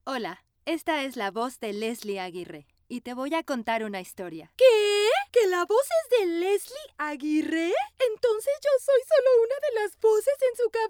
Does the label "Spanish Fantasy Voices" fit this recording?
Yes